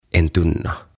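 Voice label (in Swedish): "en tunna", Swedish; Tynnyri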